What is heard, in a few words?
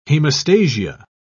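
"hemostasia", British English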